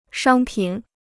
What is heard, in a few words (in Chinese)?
"商品", Chinese